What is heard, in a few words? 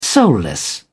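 "soulless", British English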